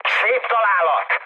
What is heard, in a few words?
bomb success, mood high, speech hun; War Thunder